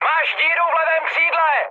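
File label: left wing, Czech, mood high; War Thunder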